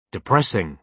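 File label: "depressing", British English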